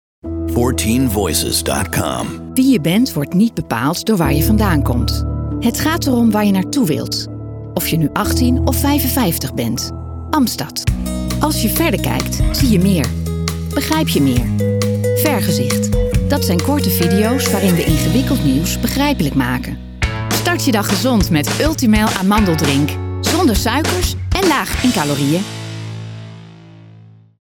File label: Commercial